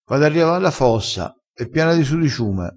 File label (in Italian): "riava", crespinese